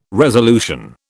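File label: "resolution", British English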